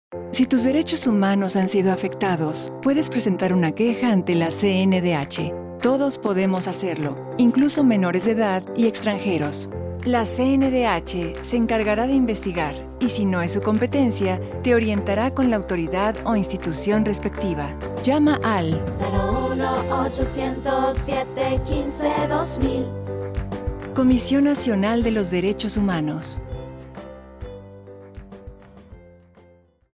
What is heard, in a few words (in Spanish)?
Spot esp; Campanas; CNDH